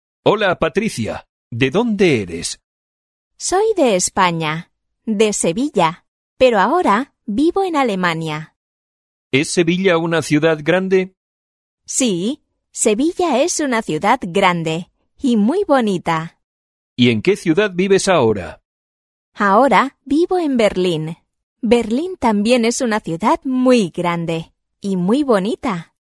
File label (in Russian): urok dialog